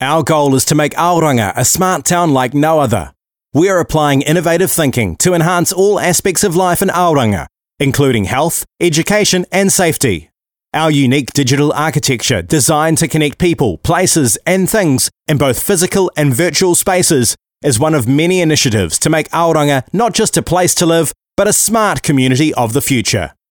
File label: low-mid energy